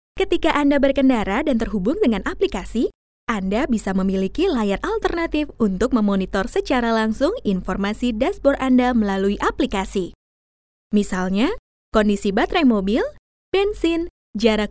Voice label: INDONESIA CASUAL

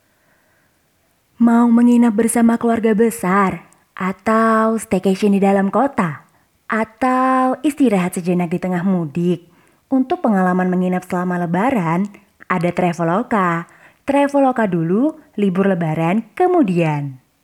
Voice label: Indonesia Casual

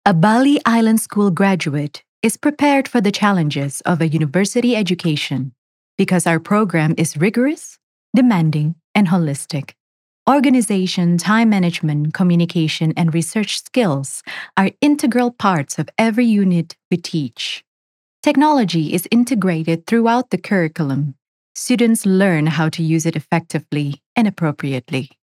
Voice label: Formal ENG Profile; Bali Island School

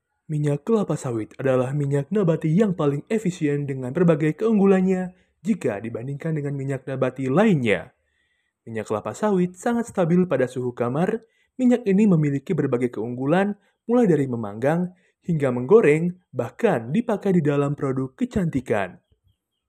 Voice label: Formal Indonesia

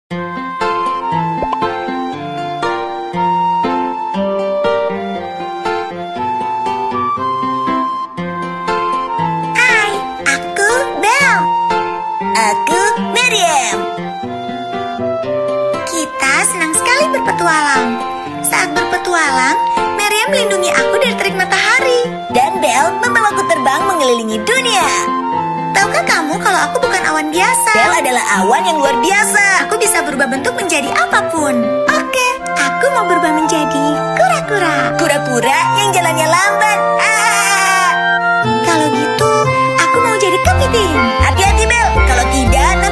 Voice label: INDONESIA KARAKTER

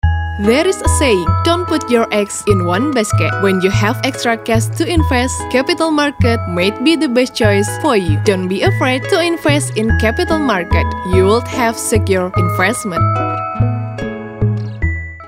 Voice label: English casual

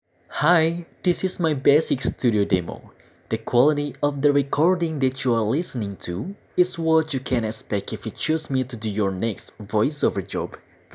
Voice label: English Casual

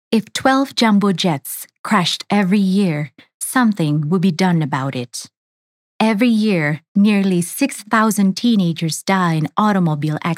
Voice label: Formal ENG TVC Insurance, voiceover; Sengkan

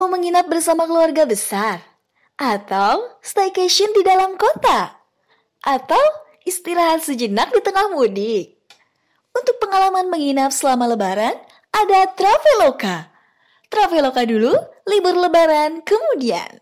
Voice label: INDONESIA CASUAL

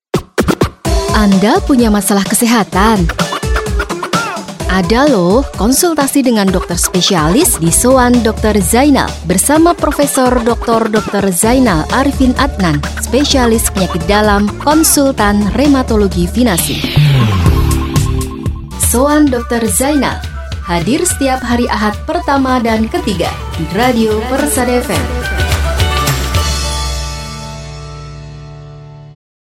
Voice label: CASUAL INDO

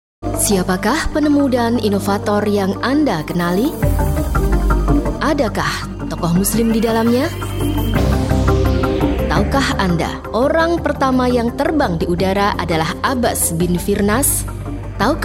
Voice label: FORMAL INDONESIA

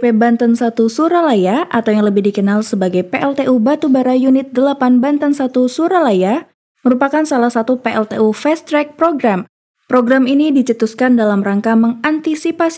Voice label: INDO FORMAL

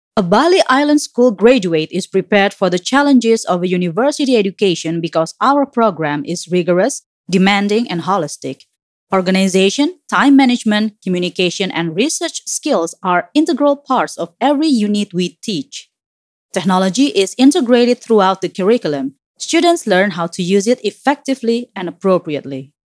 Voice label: ENG FORMAL

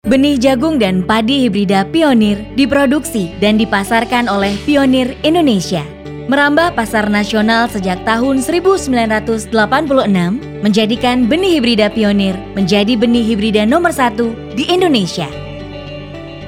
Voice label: Formal, voiceover

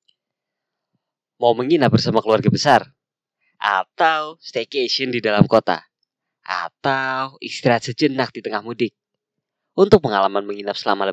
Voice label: Indonesia Casual

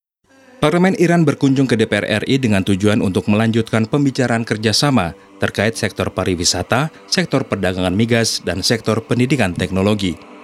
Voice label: Indonesia formal

